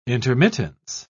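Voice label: "intermittence", British English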